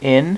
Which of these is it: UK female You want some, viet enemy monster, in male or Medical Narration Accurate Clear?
in male